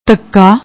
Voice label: "dhaka", Punjabi; ਧੱਕਾ

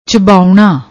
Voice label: "chabaouna", Punjabi; ਚਬਾਉਣਾ

